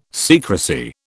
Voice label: "secrecy", British

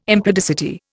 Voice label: "impudicity", Indian

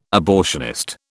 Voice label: "abortionist", British

